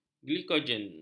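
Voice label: "glikogen", Malay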